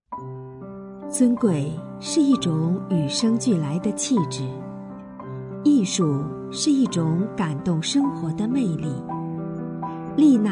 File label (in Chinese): 女 广告; 丽娜尔家纺